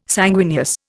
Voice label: "sanguineous", Indian